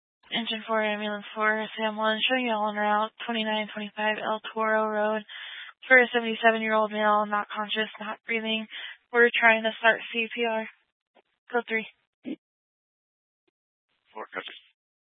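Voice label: Fire Dispatch, scanner audio recording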